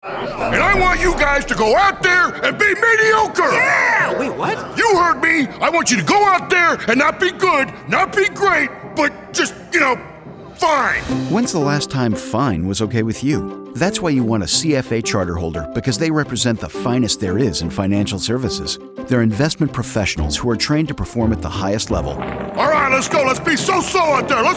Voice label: RADIO; CFA BOSTON SOCIETY; HALFTIME